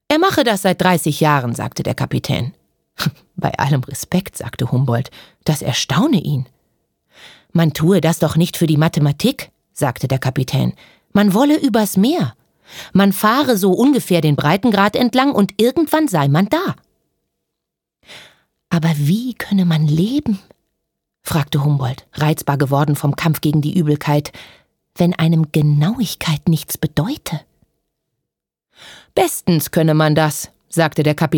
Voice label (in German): Hoerspiel